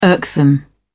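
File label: "irksome", British English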